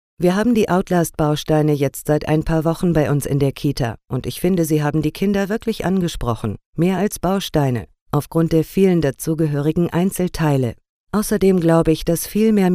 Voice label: german female corporate